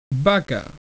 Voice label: COW, spanish european